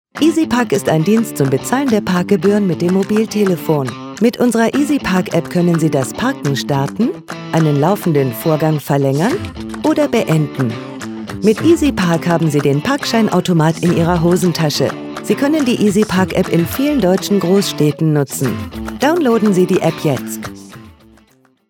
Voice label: german commercial